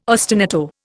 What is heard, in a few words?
"ostinato", Indian